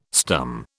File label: "stum", British